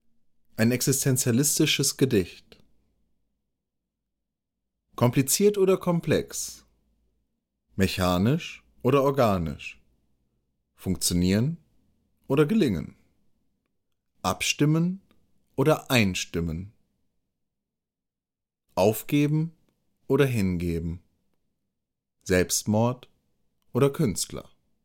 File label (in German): Ein existentialistisches Gedicht